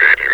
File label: "eighteen", metropolice vo; Half Life Alyx